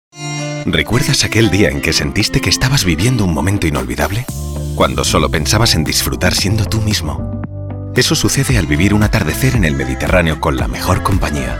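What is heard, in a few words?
comercial, Spanish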